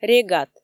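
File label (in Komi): "регат", Komi, female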